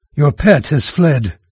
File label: pet flee, NARRATOR, en-US; Fate The Traitor Soul